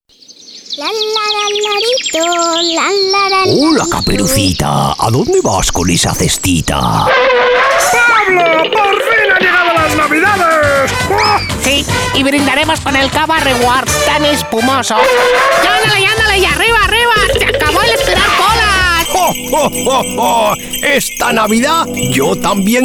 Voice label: impersonations and characterizations